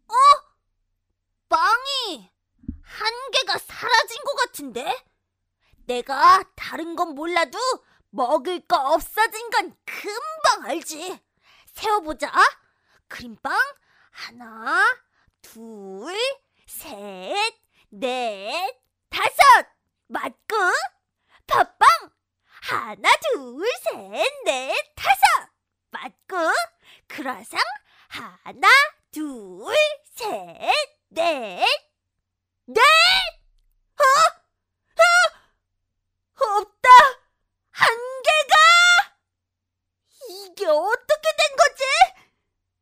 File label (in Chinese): 韩语女声 儿童节目